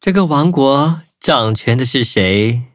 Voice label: loading zh-hant; cookie run

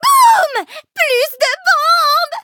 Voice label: Cookie level up, fr; cookie run

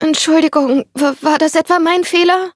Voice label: defeat de

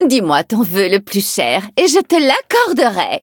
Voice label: levelup fr; cookie run

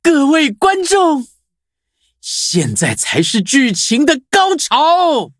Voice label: upgrade, zh-hant; Cookie Run

